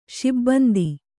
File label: "ಶಿಬಂದಿ", Kannada; śibandi; noun; = ಶಿಬ್ಬಂದಿ.